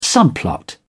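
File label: "subplot", British English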